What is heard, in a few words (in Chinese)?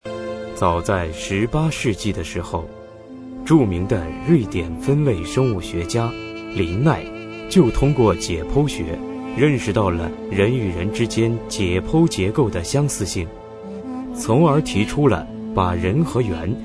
男声 纪录片; 中国通史